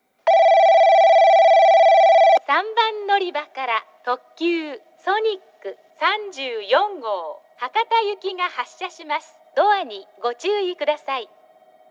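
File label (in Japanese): 3番のりば発車放送, 女声; 行橋; ソニック34号 博多行き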